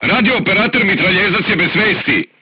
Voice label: voice message machine gunner contused, crew tanks serbian